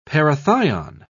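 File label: "parathion", British English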